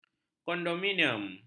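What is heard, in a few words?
"kondominium", Malay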